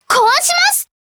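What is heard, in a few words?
SKILL, Japanese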